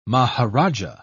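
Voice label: "maharajah", British English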